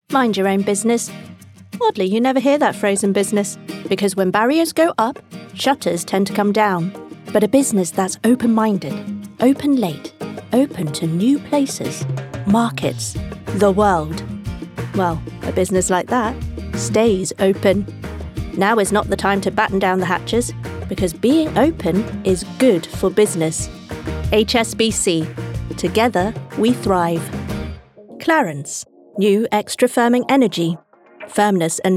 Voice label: Commercial